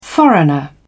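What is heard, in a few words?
"foreigner", British English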